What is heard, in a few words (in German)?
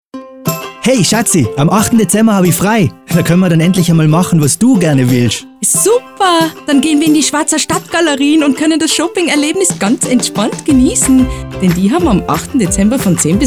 Spot classic; Stadtgalerien